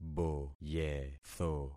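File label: "boyetho", concatenated syllables; Affixing experiment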